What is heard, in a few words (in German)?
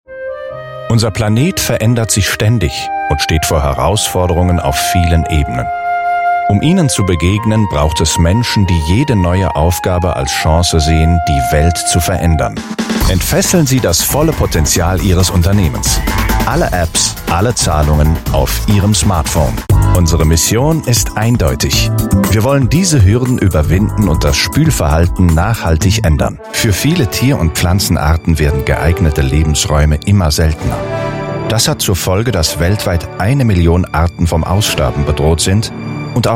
Trailer, Werbung; 9LUFS